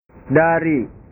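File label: "dari", Indonesian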